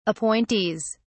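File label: "appointees", British English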